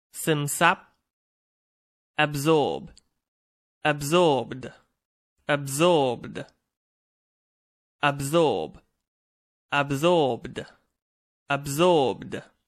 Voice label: "absorb", English; Verb

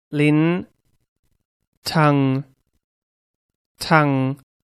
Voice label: "tongue", English; vocab body

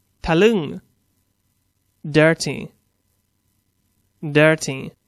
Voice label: "dirty", English; Adj

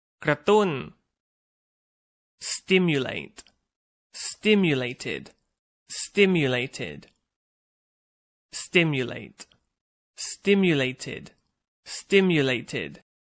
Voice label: "stimulate", English; Verb

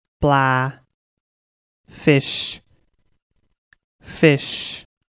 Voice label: "fish", English; noun

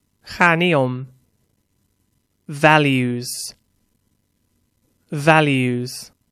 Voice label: "values", English; Noun

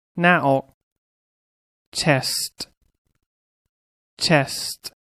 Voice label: "chest", English; vocab body

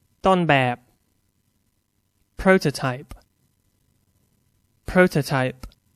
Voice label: "prototype", English; Noun

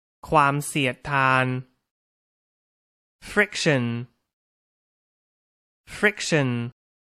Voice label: "friction", English; Science New Vocabulary

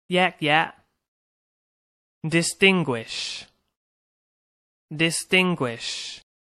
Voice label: "distinguish", English; Science New Vocabulary

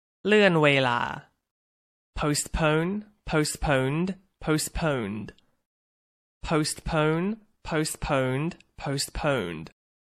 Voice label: "postpone", English; Verb

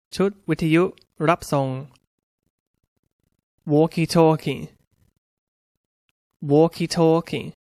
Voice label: "walkie talkie", English; Toys

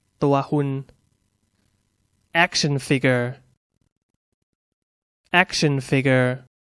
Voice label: "action figure", English; Toys

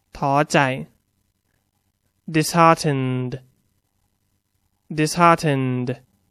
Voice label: "disheartened", English; Adj

